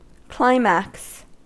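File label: "climax", US English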